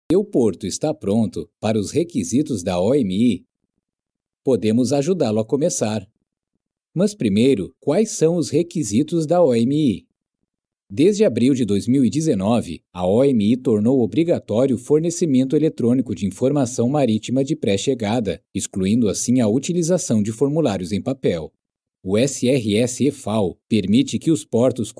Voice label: 葡萄牙语男 外语 小语种

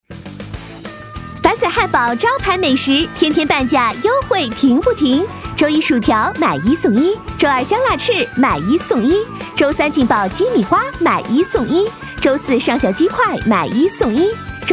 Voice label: 女 广告 促销 汉堡快餐促销 欢快

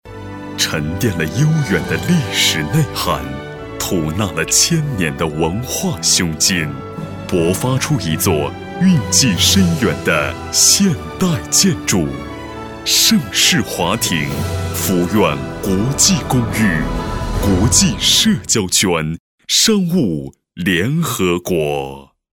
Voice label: 宣传片 地产 房地产 舒缓; 盛世华庭房地产

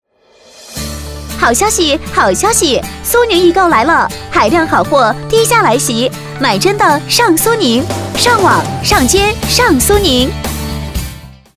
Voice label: 广告 促销 激情, 女国; 苏宁易购

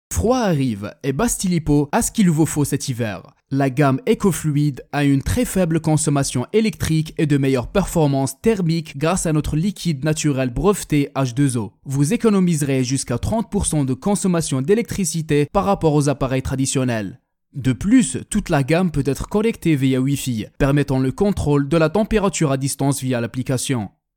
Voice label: 阿拉伯语男 外语 小语种

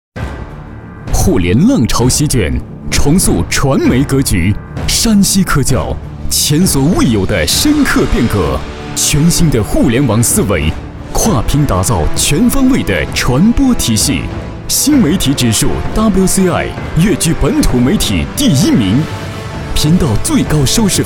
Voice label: 宣传片 企业 激情, 男; 山西科教

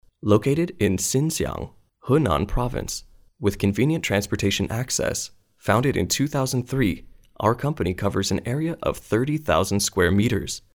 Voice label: 外籍男英 外语 美式英语; Companytestaudio

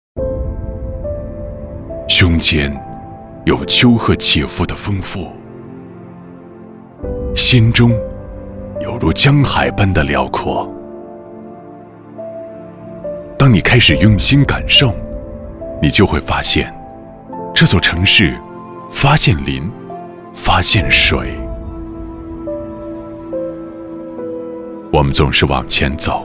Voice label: 伤感独白; 微电影

